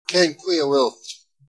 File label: how are you, Irish; Irish (Gaelic)